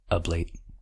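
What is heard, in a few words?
"ablate" US English; pronunciation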